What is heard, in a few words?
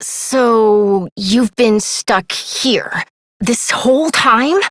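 Voice, English; New War; Operator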